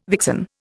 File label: "vixen", Indian